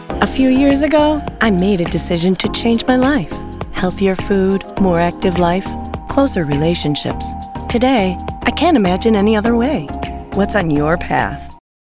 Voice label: Natural Foods Commercial; Naturespath; Coachingwellbeing